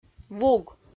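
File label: "вуг", Komi, female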